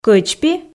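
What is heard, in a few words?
"кӧчпи", Komi, female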